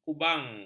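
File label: "kubang", Malay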